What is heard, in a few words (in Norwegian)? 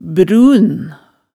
"brun", hemsedalsmaalet tale